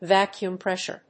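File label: "VACUUM-PRESSURE", English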